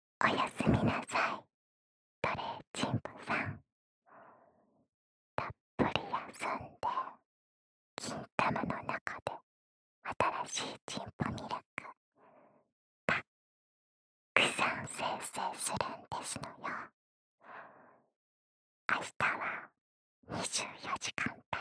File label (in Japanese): おやすみボイス